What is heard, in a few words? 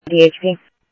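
Police Department, scanner audio; ThompsonPeakHigh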